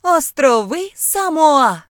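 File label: "samoan islands", British; gcompris; geography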